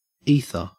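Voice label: "ether", Australian English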